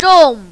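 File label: "zhong" fourth tone, mandarin, female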